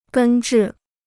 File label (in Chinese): "根治", Chinese